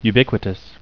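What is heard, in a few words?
"ubiquitous", English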